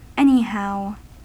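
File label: "anyhow", US English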